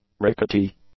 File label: "rickety", English; adjectives